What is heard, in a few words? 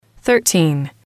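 "thirteen", English, female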